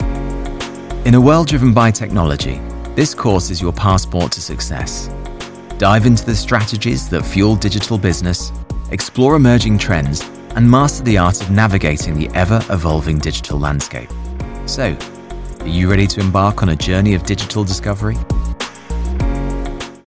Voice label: Corporate eLearning Tech; voice-over